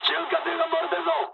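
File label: Japanese damaged oil mood high; War Thunder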